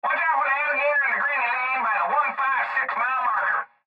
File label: Cb Trucker Radio; Cb Radio Male Watch Out For The Alligator; Radio Voice; Blastwave